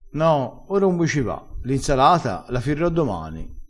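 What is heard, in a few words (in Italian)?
"firro", vocabolario dialettale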